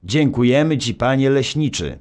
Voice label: narr; Szkola Koziolka; CyberMycha 2003 October

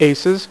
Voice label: "aces", dealer's speech; PowerPOKER Demo

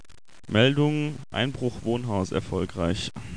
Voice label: tv news; dime city

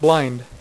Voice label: "blind", dealer's speech; PowerPOKER Demo

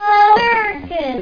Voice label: UNAMERICAN child's voice

